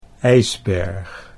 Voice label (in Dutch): "ijsberg", Dutch